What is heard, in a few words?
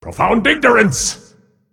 Male Titan Keeper Attack